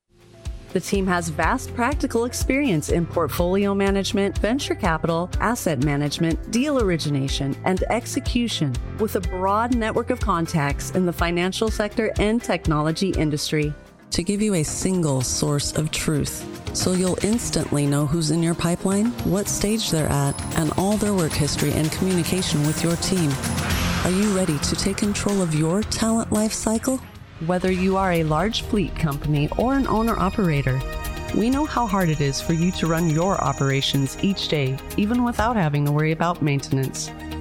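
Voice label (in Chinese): 外籍女英_外语_美式英语_样音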